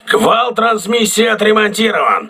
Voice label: voice message transmission repaired, crew ships russian